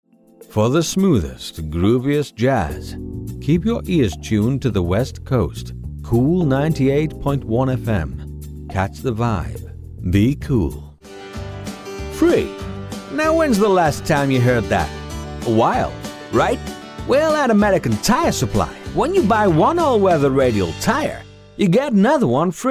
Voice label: Commercial with music Stereo

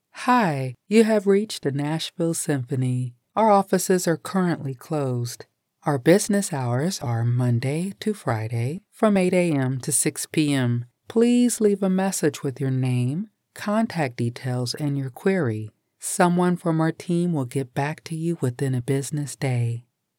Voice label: IVR

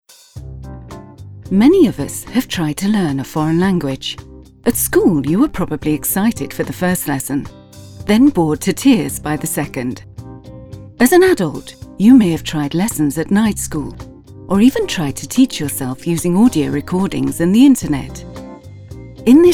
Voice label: corporate

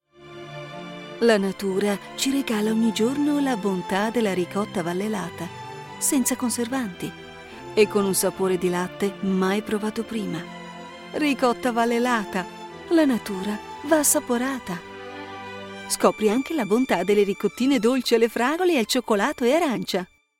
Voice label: Advert

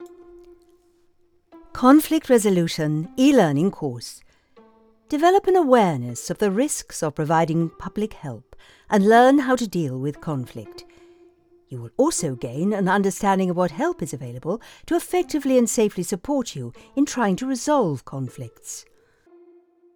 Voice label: E Learn; Conflict

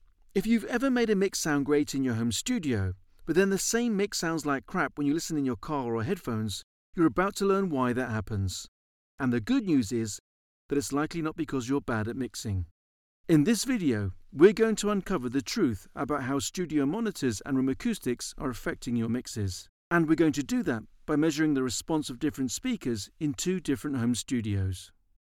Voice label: studio

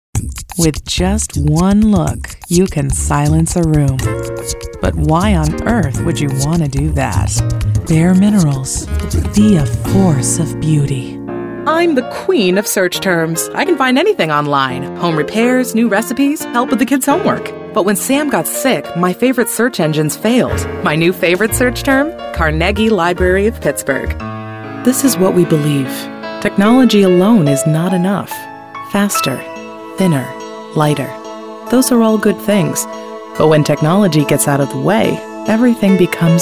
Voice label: Commercial

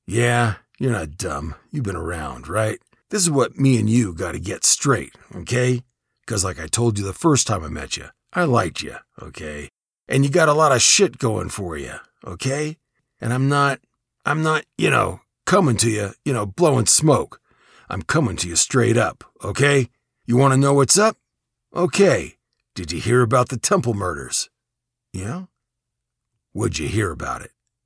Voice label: True Crime Podcast Police Detective

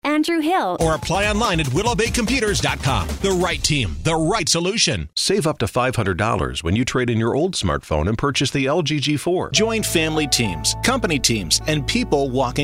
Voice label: Softer Commercial

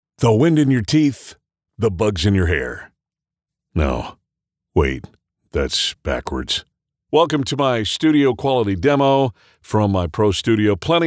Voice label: Studio Quality

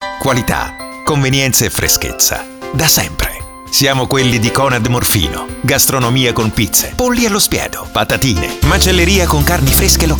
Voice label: SPOT; CONAD MORFINO 2017

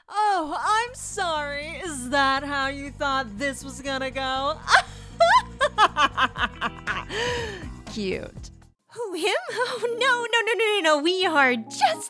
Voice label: Animation Reel

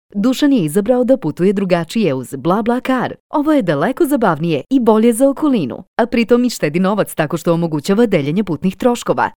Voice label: Serbian VO for WEB video

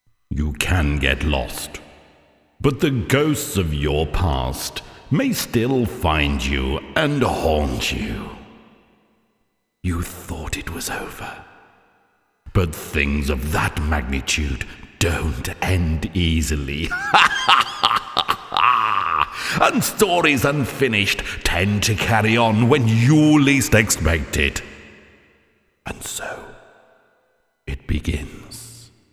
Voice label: Narrator Intro

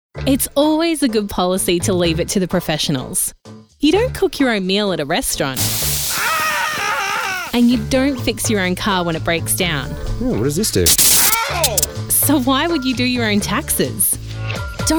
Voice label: Voiceover

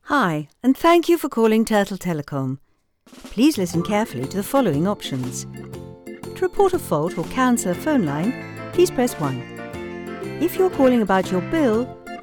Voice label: on hold

